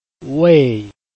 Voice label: "wei4", Chinese

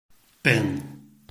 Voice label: "bing4", Chinese

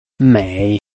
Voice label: "mei3", Chinese

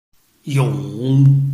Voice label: "yong3", Chinese

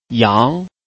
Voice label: "yang2", Chinese

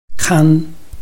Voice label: "kan1", Chinese

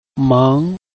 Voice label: "meng2", Chinese

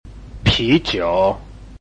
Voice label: "pi2 jiu3", Chinese

